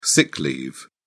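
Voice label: "sick leave", British English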